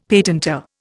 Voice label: "patentor", Indian; Dictionary